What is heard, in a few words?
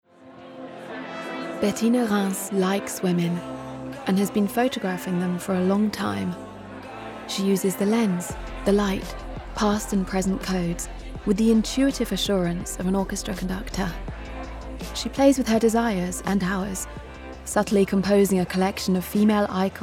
doc narration artsy aloof